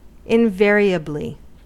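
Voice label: "invariably", US English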